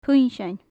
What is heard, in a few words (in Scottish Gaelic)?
"puinnsean", gaelic; Faclan Nàdair